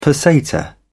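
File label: "peseta", British English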